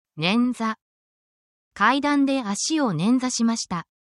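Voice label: Japanese word, japanese phrases; under the weather essential japanese phrases for medical symptoms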